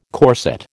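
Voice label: "corset", American English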